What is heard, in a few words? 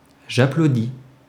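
"j'applaudis", French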